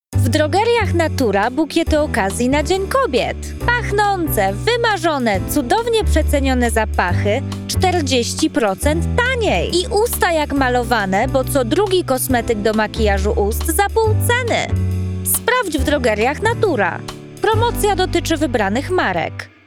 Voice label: polish commercial; Polonais; Chypre; voix off polonaise